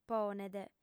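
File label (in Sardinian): "ponet", female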